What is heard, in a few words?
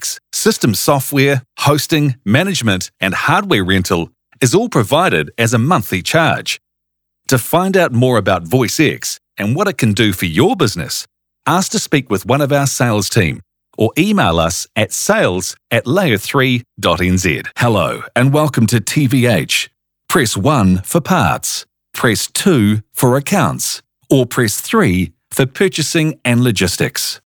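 New Zealand PHONE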